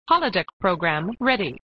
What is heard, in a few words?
Voice Computer "Holodeck Program Ready"